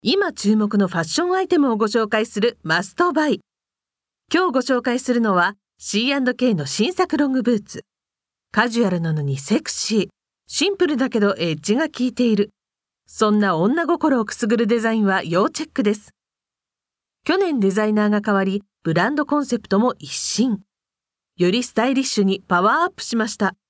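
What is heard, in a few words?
narration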